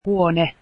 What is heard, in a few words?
room, finnish